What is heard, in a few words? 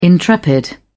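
"intrepid", English, uk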